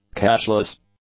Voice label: "cashless", English; adjectives